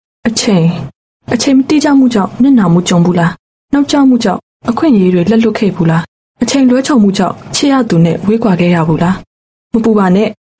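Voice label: Burmese Female TVC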